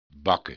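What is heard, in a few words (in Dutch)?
"bakken", Dutch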